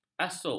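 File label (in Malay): "asuk", Malay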